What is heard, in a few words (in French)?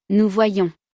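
"nous voyons", French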